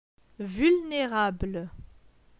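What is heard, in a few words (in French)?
"Vulnérable", French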